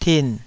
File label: "tin3", Taiwanese